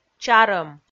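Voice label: "чарӧм", Komi, female; kpv audio dict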